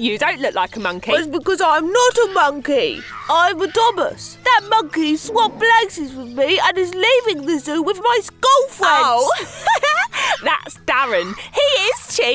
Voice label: Kids Animation Reel

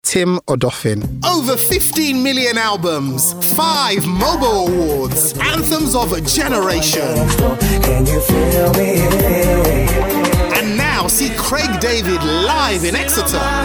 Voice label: commercial showreel

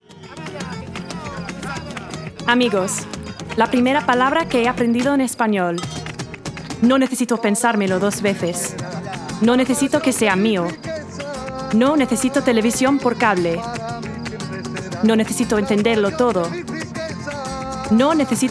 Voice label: Foreign Language VO reel

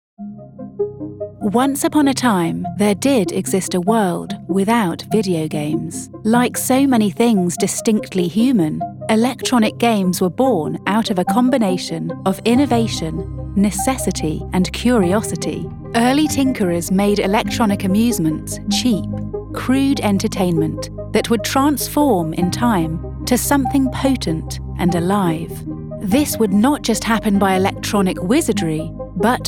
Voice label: Documentary

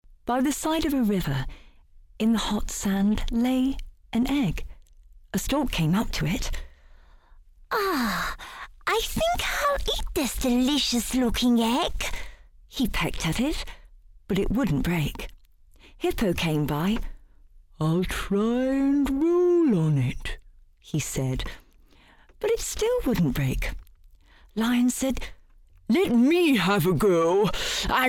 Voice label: Childrens Animation

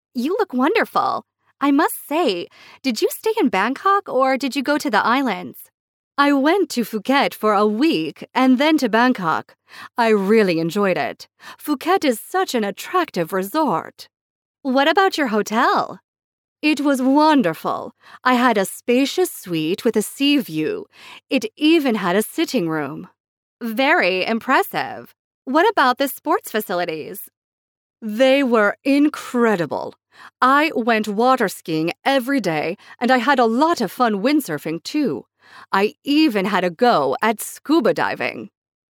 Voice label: character

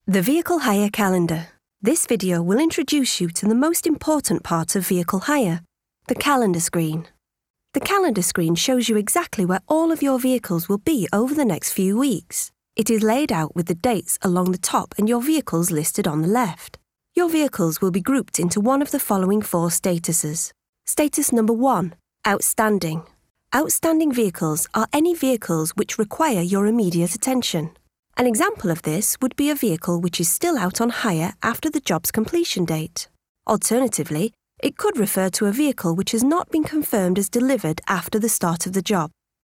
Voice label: Explainer

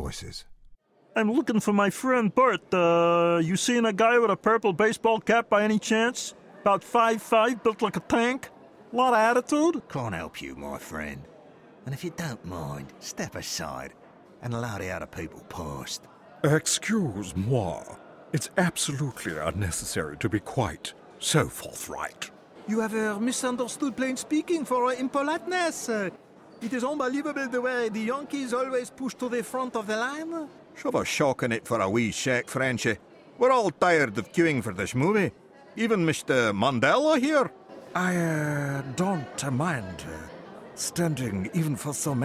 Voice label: VIDEO GAME ANIMATION

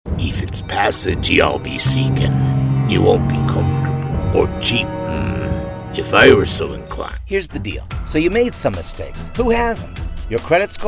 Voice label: Commercial

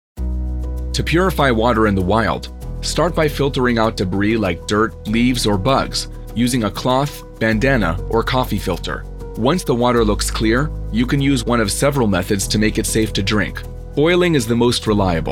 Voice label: E LEARN

